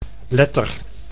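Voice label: "letter", Dutch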